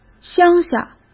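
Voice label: "乡下", Mandarin Chinese; hsk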